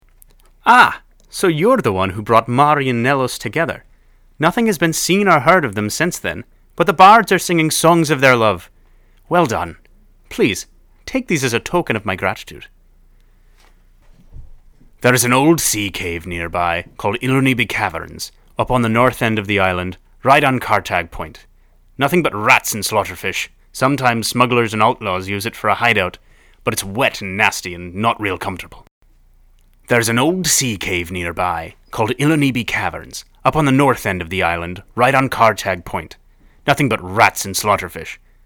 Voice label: voice acting breton